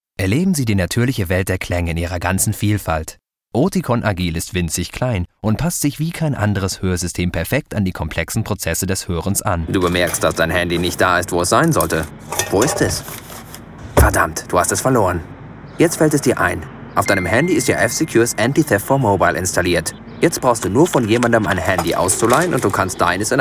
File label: male german corporate compilation